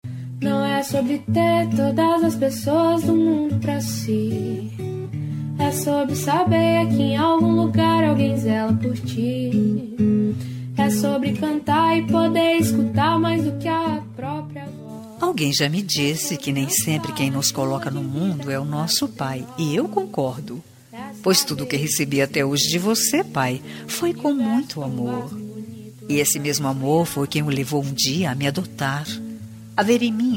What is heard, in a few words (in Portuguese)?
consideracao masc; Dia dos Pais